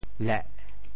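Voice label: "leh4", Teochew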